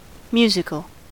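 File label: "musical", US English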